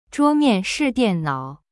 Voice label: "zhuo1mian4shi4dian4nao3", Chinese; cyberchinese